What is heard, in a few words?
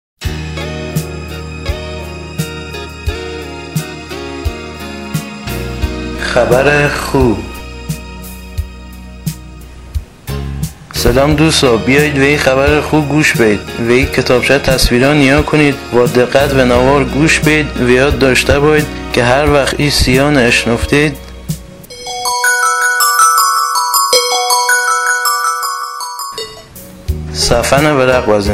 JUDEO PERSIAN Hamadan Nahavand DIALECT; jpr